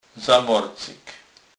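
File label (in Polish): "zamorcyk", Kurpie dialect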